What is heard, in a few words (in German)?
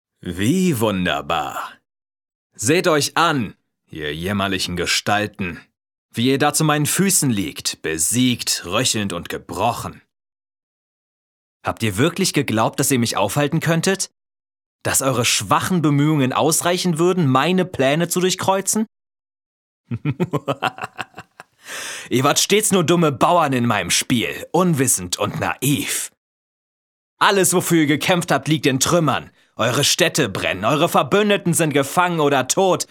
Bösewichtsmonolog, Scene, German